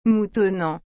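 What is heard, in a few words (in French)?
"moutonnante", French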